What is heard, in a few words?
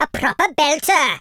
fireball, Welsh; speech